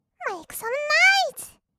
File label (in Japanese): メイクサムノイズ, kawaii voice; 音ゲー